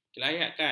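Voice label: "kelayakan", Malay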